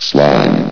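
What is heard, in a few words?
"slime", male; vox; source engine; hl1; video games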